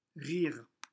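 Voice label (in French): "rire", French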